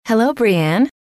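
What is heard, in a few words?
"hello", female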